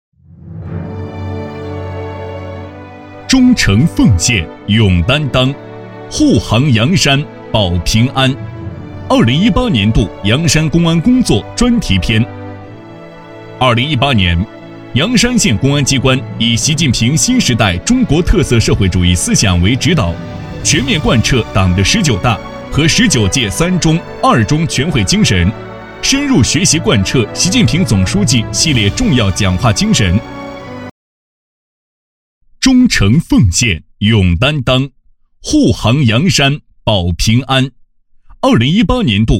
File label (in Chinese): 男,党政专题 公安机关; 阳山县